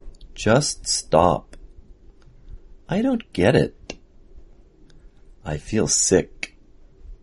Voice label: ejective release